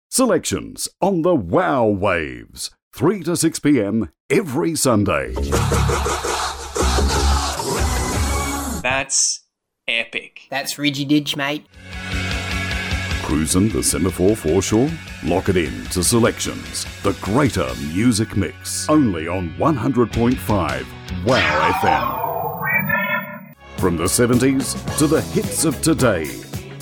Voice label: english australian radio imaging; professional Australian voice actor

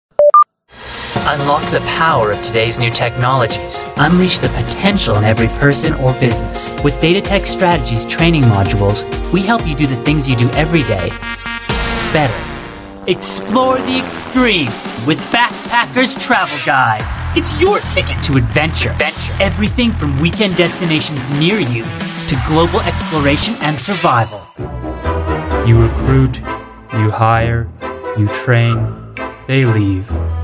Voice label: english canadian promos

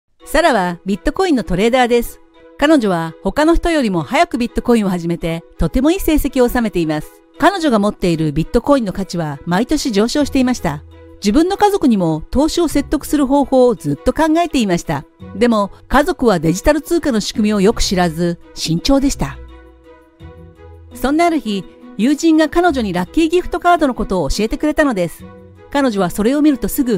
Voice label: japanese corporate videos

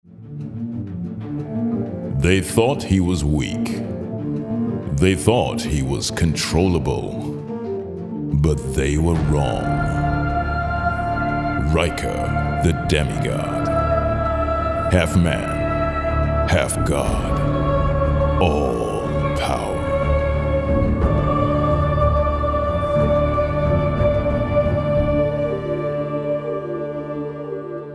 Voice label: english british movie trailers

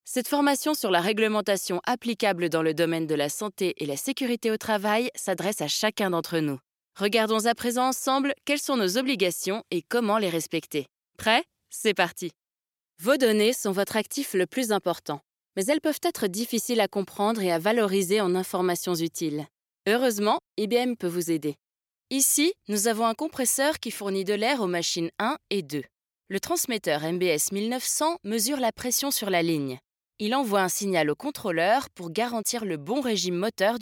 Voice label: french e-learning, voice actors; dubladora profissional francesa

